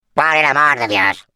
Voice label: Defective Turret return defect fail es